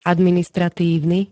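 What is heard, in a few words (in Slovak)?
"administratívny", slovenské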